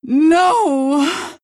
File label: pain death; Queen of Pain